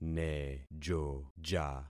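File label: "nejoja", concatenated syllables; Affixing experiment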